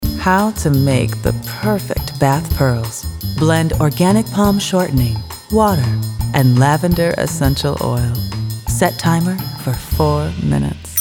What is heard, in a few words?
Sexy Smarmy